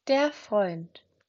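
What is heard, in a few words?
"Freund", German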